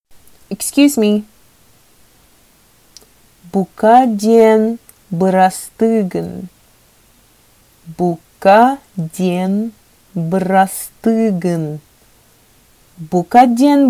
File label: Sakha Excuse me